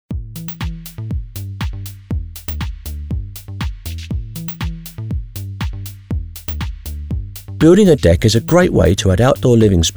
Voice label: Decking Explainer Video